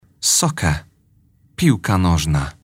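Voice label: "soccer", English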